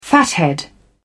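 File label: "fathead", British English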